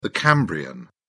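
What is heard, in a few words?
"permian", British English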